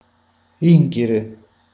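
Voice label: "riempire", Italian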